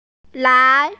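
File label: "lai2", Mandarin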